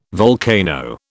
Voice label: "volcano", British

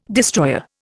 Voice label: "destroyer", Indian

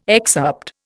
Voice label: "excerpt", Indian

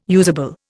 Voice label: "usable", Indian

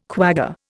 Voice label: "quagga", Indian

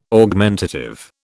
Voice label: "Augmentative", British

